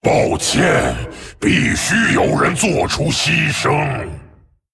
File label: Male Dragon Sorry; ▶抱歉，必须有人做出牺牲。 ▶Sorry. Sacrifices must be made.; zh; 风暴巨龙迦拉克隆